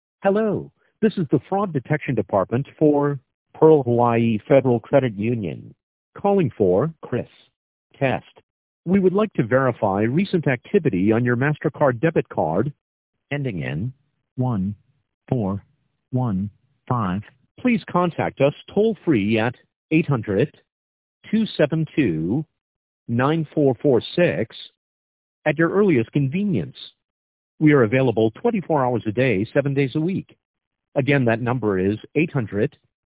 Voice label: Voicemail